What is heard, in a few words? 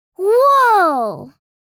Woah girl child kid